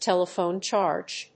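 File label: "TELEPHONE CHARGE", English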